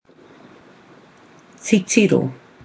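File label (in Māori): "titiro", Māori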